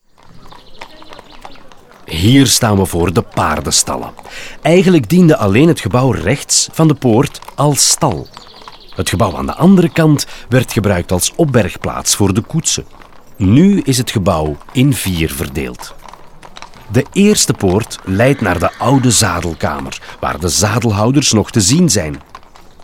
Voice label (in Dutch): audioguide nl enfant; modave